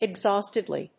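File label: "exhaustedly", English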